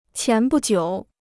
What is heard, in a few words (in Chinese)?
"前不久", Chinese